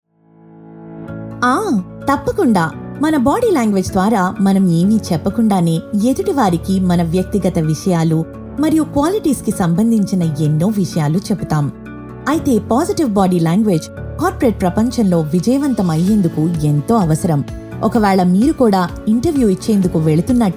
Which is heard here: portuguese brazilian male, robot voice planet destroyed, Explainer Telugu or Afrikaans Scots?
Explainer Telugu